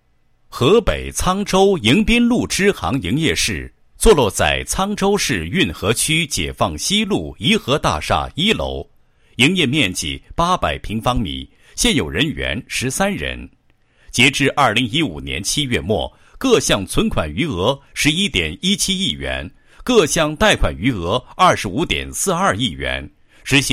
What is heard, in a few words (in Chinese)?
男 宣传广告; 某支行营业室网点标准化迎宾在行动